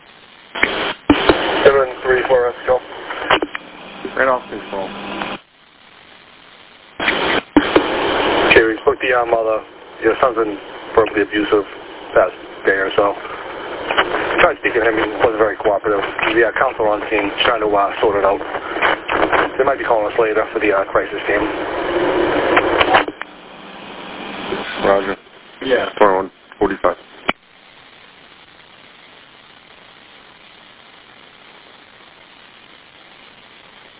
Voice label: Police Radio